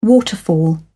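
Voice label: "waterfall", British English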